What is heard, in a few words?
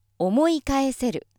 female